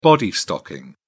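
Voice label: "body stocking", British English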